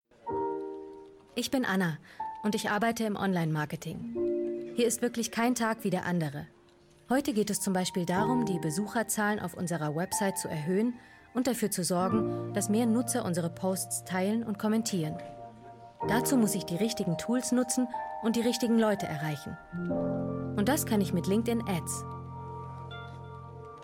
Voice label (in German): Werbung; LinkedIn